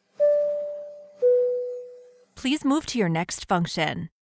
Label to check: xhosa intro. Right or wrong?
Wrong